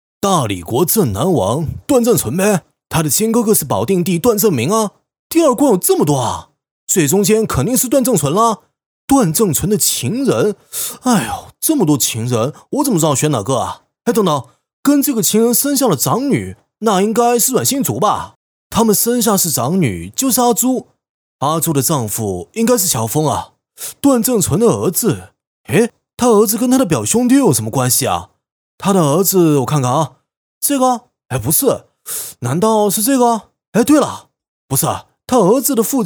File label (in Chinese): 男 台湾腔; 大理国镇南王